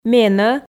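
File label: "менӧ", Komi, female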